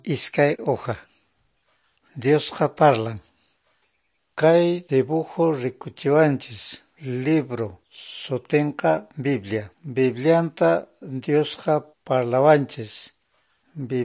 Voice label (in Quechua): good news quechua norte de bolivia apolo; quechua bible